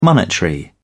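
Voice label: "monetary", English, British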